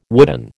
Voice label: "wooden", American